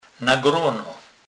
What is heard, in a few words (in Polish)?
"na grono", Kurpie